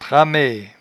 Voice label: "tramaie", patois jura

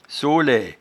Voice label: "solaie", patois jura

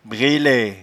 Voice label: "brelaie", patois jura

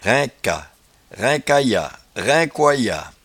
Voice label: "rainca", patois jura

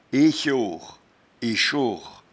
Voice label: "echore", patois jura

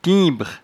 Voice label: "timbre", patois jura

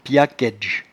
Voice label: "piacaidge", patois jura

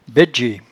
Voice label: "baidge", patois jura

